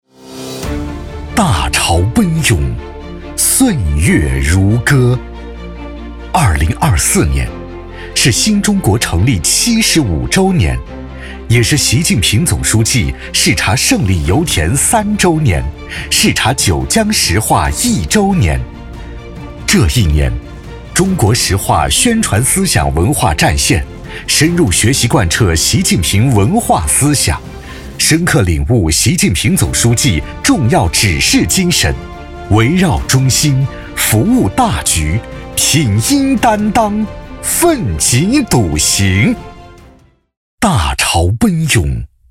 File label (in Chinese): 男 专题汇报 磅礴大气有力; 思想文化工作总结